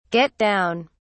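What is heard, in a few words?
"get down", British English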